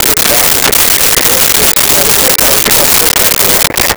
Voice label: Police Radio Chatter; 1001 Sound Effects; Communications